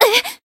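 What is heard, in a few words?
damaged, Japanese; niike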